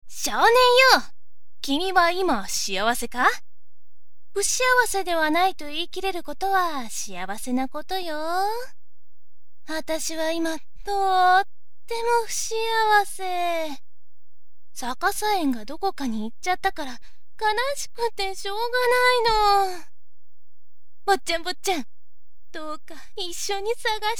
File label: voice onnna